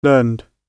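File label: "learned", British English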